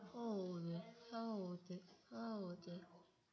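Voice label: "hold", spoken word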